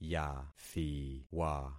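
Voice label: "yathiwa", concatenated syllables; Affixing experiment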